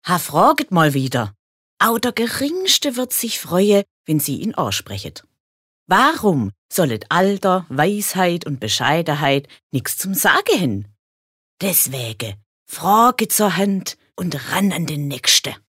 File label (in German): Dialekt Schwaebisch